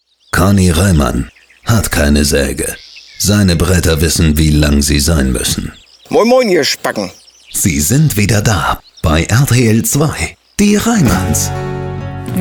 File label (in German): Radiospot